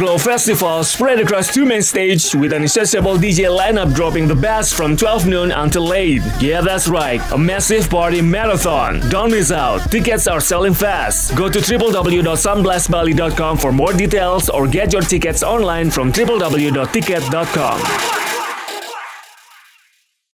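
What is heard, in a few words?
Indonesian male voice over, sales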